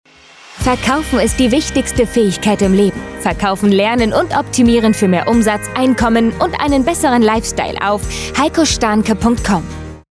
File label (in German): Funkspot; Heiko Stahnke Consulting